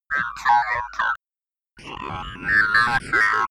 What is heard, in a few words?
robot talking droid